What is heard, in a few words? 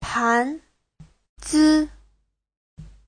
"pan2zi5", chinese; 盘子; (danh từ) đĩa, mâm; Giáo trình chuẩn HSK3